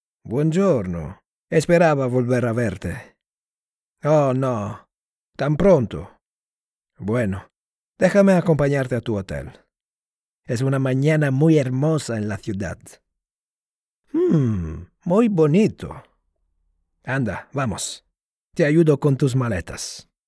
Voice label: Spanish commercial